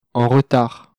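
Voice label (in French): "en retard", French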